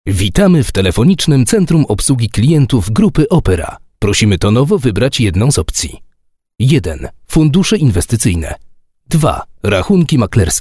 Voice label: ivr